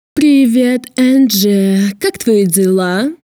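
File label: pompous girl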